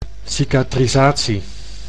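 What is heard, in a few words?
"cicatrisatie", Dutch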